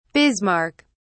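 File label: "bismarck", British English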